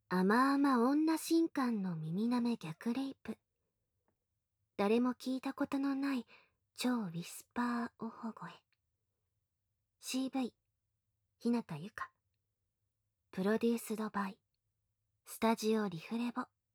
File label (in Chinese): 標題朗讀